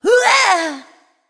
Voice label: Female Nightborne Death

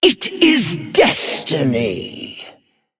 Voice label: Female Dragon Attack

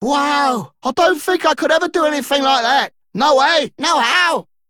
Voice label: Male Dredger General

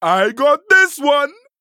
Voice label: male troll attack

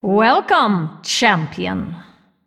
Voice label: Female Undead Greetings

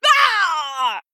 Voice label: Female Troll Death

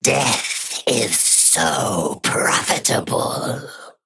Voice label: Male Sethrak Play

